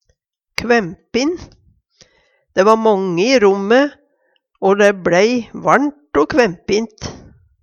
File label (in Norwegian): kvaempin, Numedalsmål; Adjektiv